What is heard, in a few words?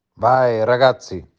"Pushen Auf Jungs", "Vai Ragazzi"